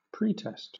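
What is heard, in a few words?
"pretest", British English, noun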